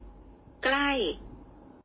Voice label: 泰語近的發音

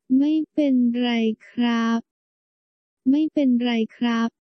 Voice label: 沒關係男

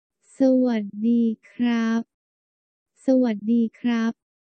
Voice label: 你好男生

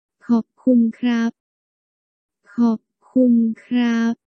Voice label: 謝謝男生

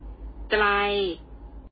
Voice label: 泰語遠的發音

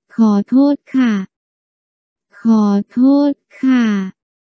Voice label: 對不起女生

